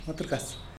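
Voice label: "matrugas", Tlacochahuaya Zapotec; millipede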